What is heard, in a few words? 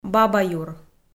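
"бабаюр", Komi, female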